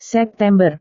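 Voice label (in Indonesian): "september", Indonesian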